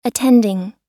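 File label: "attending", American English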